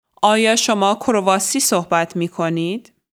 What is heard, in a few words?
Farsi, Sprechen Sie Kroatisch?; آیا شما کرواسی صحبت می‌کنید؟